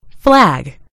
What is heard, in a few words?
"flag", en GB; gcompris